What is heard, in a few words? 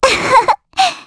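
Vox Happy, korean; Estelle; kingsraid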